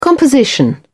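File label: "composition", English, British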